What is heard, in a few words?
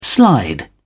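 "slide", British English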